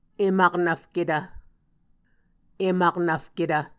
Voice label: "em aknvfketv", Muscogee